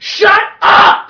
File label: boombox shut up; cstrike; jailbreak